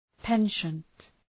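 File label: "penchant", English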